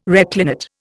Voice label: "reclinate", Indian

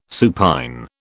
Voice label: "supine", British

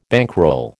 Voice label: "bankroll", American

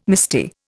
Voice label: "misty", Indian

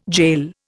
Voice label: "gaol", Indian

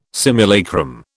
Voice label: "simulacrum", British

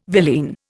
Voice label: "villein", Indian

